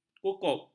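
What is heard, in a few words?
"kukup", Malay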